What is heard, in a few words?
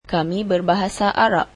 malay we speak Arabic